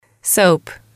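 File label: "soap", English, female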